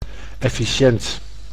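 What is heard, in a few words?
"efficient", Dutch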